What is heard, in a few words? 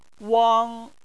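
"wang", Chinese, first tone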